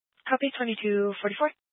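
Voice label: Police Dispatch, Scanner audio; Police 1 Dispatch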